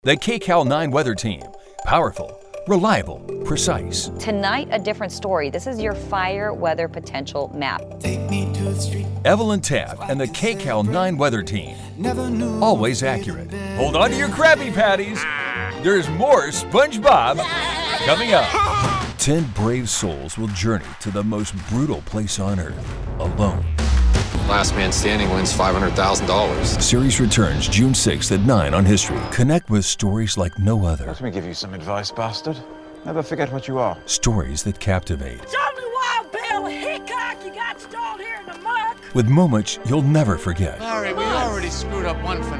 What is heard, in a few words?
Promo